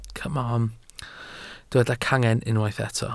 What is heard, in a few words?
"cangen", Welsh